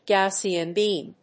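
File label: "Gaussian Beam", English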